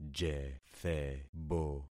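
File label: "jethebo", concatenated syllables; Affixing experiment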